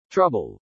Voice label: "trouble", English